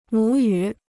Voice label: "母语", Chinese

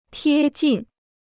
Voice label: "贴近", Chinese

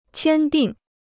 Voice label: "签订", Chinese

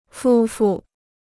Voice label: "夫妇", Chinese

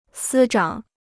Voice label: "司长", Chinese

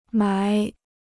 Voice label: "埋", Chinese

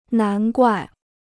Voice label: "难怪", Chinese

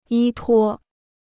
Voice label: "依托", Chinese

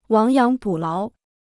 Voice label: "亡羊补牢", Chinese

